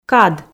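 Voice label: "кад", Komi, female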